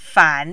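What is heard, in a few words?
"fan", third tone, Mandarin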